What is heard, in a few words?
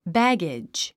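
"baggage", English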